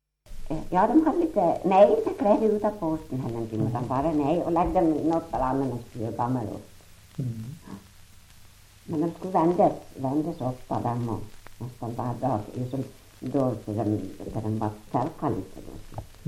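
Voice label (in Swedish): Ål Dalarna, Svenska sagor, dialekter; Folkminnen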